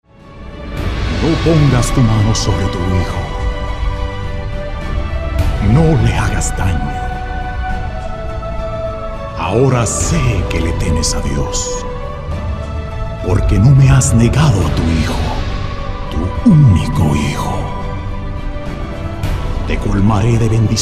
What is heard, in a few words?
Film Voice Over Spanish